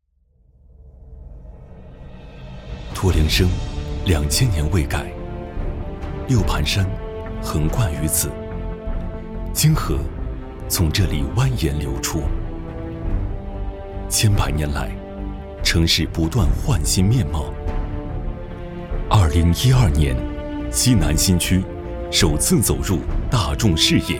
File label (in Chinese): 男 宣传片 地产; 房产宣传片